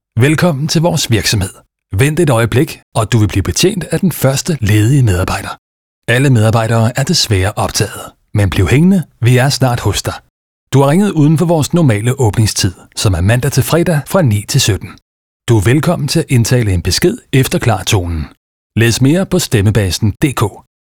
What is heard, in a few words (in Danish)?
DK NEUTRAL MAND; Stemmebasen